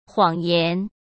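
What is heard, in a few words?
"谎言", Mandarin Chinese; hsk; chinese flashcards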